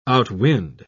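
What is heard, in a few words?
"outwind", English, British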